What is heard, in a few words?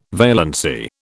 "valency", British